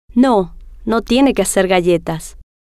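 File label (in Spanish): no Tiene Que Hacer Galletas, spanish, female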